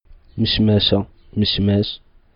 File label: abricot, darija